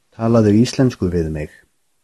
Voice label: speak to me in icelandic

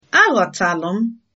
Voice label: understand, igbo

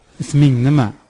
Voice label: name uzbek; Isming nima?

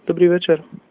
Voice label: good evening, slovak

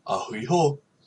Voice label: goodnight, hawaiian

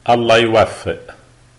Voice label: goodluck, lebanese arabic

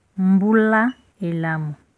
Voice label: christmas, Lingala

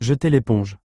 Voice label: throw in the towel french; jeter l'éponge; to throw the sponge

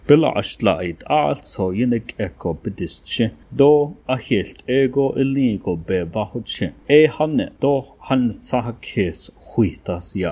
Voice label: Navajo

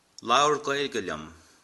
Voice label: speak to me in, irish

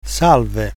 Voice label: hello, italian

